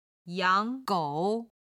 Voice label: "yang3 gou3", Chinese